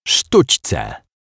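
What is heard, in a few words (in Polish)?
"sztucce", Polish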